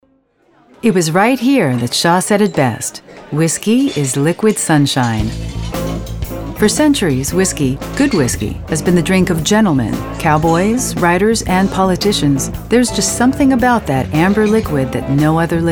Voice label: Narration